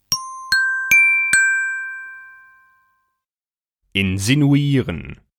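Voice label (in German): "insinuieren", Deutsch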